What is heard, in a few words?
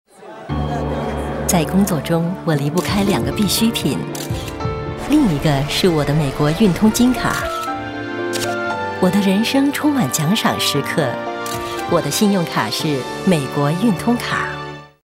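Mandarin; amex; photographer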